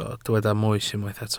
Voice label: "moes" Welsh

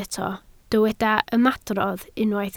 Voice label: "ymadrodd", Welsh

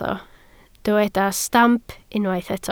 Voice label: "stamp", Welsh

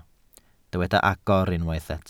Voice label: "agor", Welsh

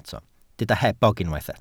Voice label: "hebog", Welsh

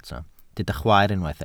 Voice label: "chwaer", Welsh

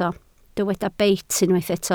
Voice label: "beit", Welsh

